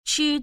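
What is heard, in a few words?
"chi1", Chinese, female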